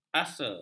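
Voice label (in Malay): "asa", Malay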